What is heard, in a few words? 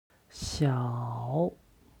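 "xiao", Chinese; HSK Level One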